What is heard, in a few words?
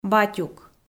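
"батюк" Komi, female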